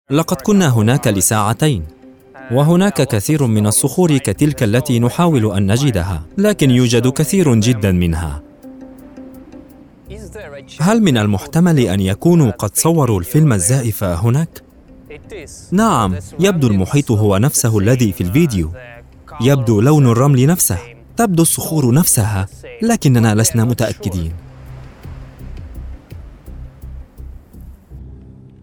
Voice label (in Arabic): وثائقي